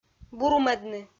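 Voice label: "бурмӧдны", Komi-Zyrian, female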